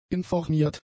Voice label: "informiert", German; adjectives

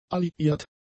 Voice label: "alliiert", German; adjectives

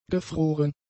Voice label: "gefroren", german; adjectives

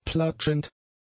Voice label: "platschend", German; adjectives

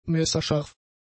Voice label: "messerscharf", German; adjectives

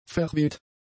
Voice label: "verweht", German; adjectives

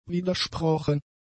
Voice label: "widersprochen", German; adjectives

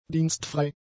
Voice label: "dienstfrei", german; adjectives

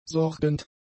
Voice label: "sorgend", german; adjectives

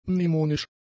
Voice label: "mnemonisch", German; adjectives

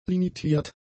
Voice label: "limitiert", German; adjectives

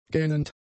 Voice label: "gähnend", German; adjectives

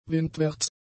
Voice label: "windwärts", German; adjectives

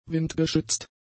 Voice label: "windgeschützt", German; adjectives

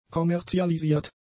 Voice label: "kommerzialisiert", German; adjectives

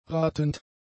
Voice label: "ratend", German; adjectives

